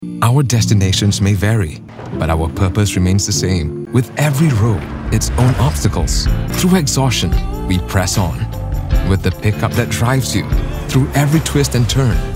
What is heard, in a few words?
ENG, Commercial Gentle, Calm, & Authoritative; ENG : Petronas Dynamic Diesel Commercial Gentle, Calm, & Authoritative